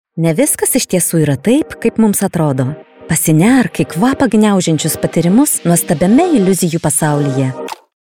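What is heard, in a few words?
Lithuanian, female, commercial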